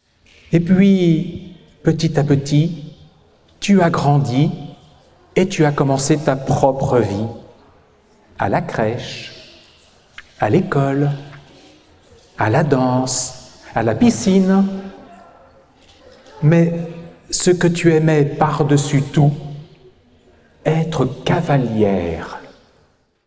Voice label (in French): voix off lecture voix haute vie ordinaire